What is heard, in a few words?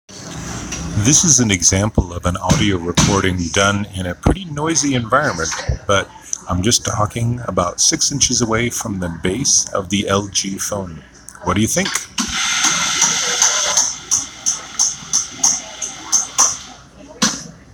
android audio recorder; android phone